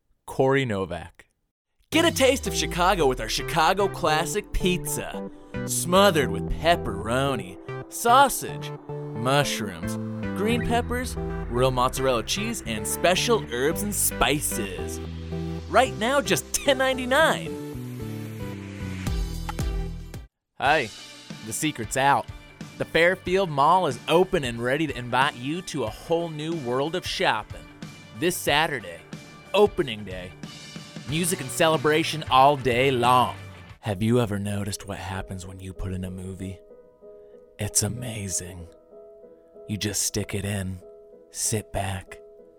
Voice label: Commercial VO